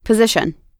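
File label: "position", American English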